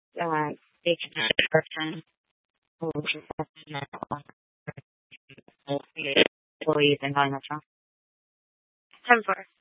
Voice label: Tempe PD, scanner; Rgnl Wireless Co-op (RWC)